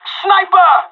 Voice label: sniper warning, pirate; vo; Counter Strike Model Pack; CS:GO

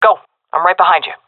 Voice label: following friend, seal fem; vo; Counter Strike Model Pack; CS:GO

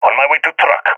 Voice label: omw truck, balkan; vo; balkan epic

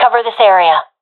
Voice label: request hold, professional fem; vo; Counter Strike Model Pack; CS:GO

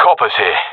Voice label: sees enemy, professional epic; vo; Counter Strike Model Pack; CS:GO

